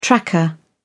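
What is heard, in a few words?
"tracker", British English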